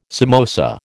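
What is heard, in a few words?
"samosa", American English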